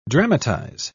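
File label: "dramatise", British English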